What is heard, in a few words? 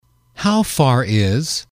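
"how far is", English, male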